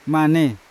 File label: "mane" fruit, Yawa